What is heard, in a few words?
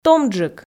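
"томджык", Komi, female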